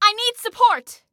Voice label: Need Support, Female; Female 02

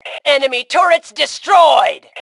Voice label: Enemy Turrets, Female; T2 Female 04

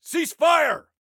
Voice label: Team Cease Fire, Soldier Male; Soldier Male 02

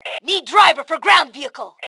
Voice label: Need Driver, Female

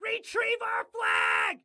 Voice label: Flag Retrieve, Excited Guy

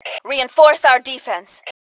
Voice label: Defend Reinforce, Female; T2 Female 01

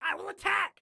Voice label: Self Attack, Excited Guy

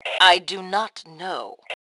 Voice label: Respond Dont Know, Female; T2 Female 02

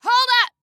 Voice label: Team Wait, Female

